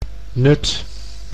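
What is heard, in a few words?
"Nuth", Dutch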